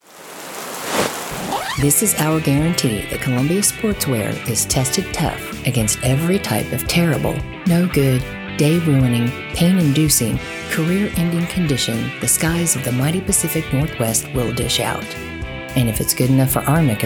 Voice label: Commercial